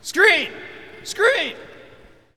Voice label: Hockey Male "Screen"; Hockey Vocal Sounds